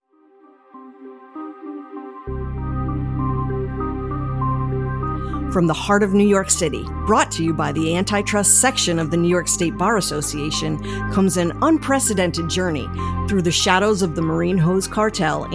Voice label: AUDIO TRAILER